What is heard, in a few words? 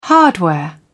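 "hardware", British English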